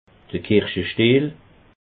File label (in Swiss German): "kirschestiel", alsace